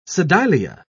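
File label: "sedilia", British English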